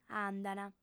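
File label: "andant", Sardinian, female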